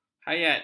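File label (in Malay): "hayat", Malay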